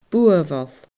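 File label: "buabhall", Scottish Gaelic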